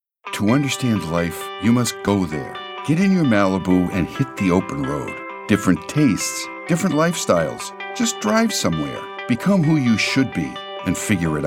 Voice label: commercial

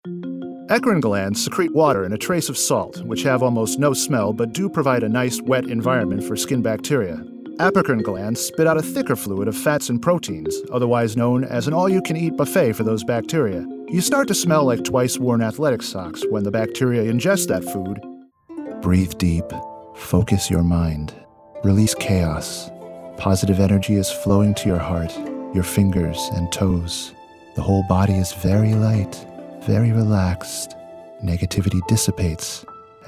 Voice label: narration

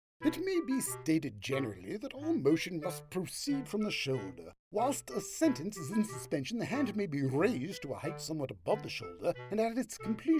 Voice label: NARRATION

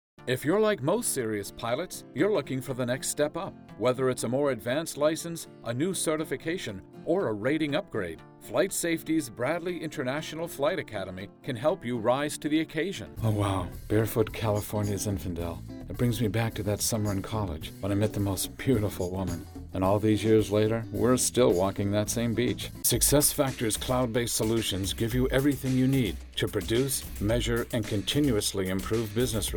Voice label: commercial

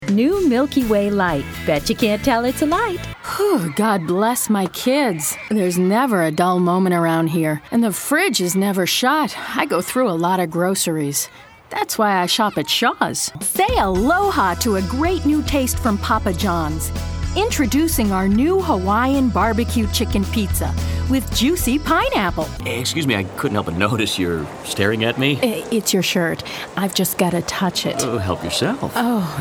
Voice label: commercial

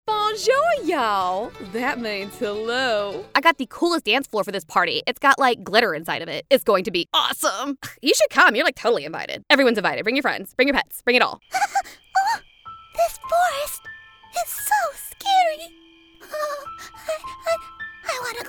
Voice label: character

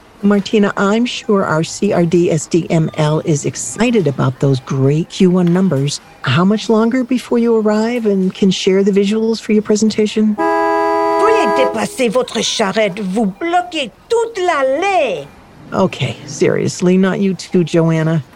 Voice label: Conference Call

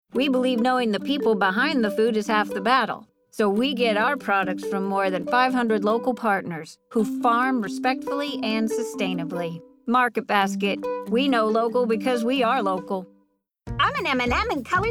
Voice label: COMMERCIAL

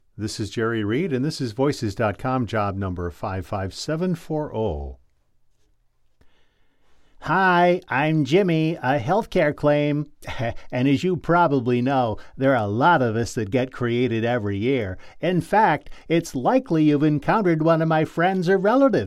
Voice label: narration

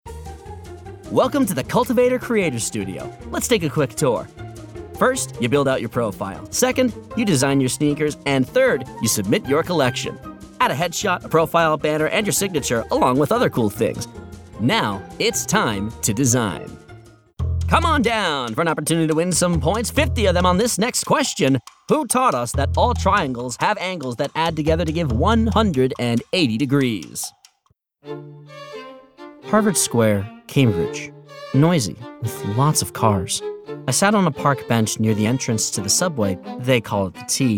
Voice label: NARRATION

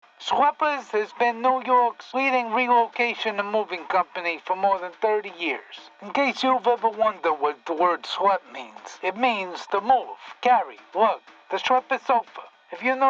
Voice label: NARR

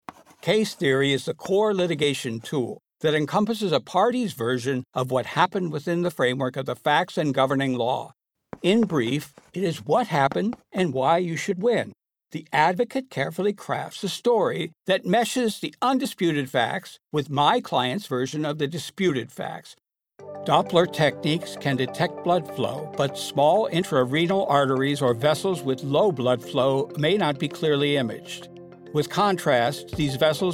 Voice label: elearning

